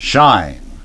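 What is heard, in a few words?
"shine", English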